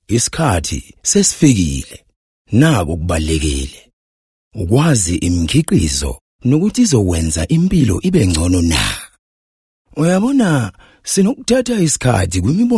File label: Zulu Softsell